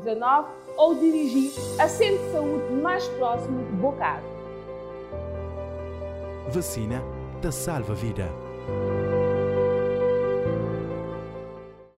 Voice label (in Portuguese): SPOT Inscrição para a Vacinação versão Rádio